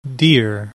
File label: "DEER", English